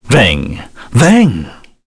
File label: Skill, kr, voices; heroes; Mitra; kings raid